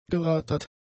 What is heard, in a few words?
"gerattert", German; adjectives